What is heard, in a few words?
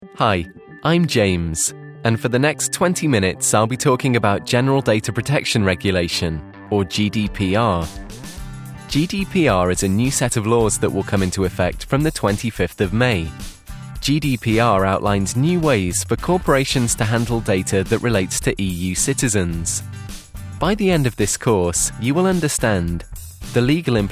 voiceover eLearning example